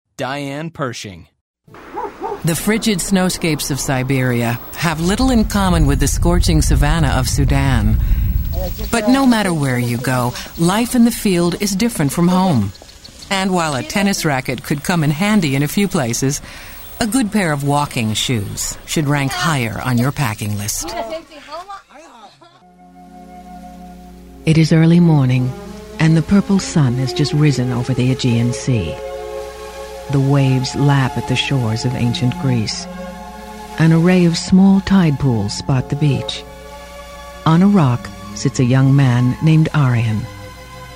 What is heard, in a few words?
Narration